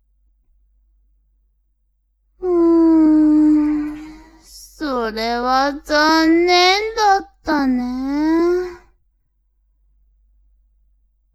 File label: woman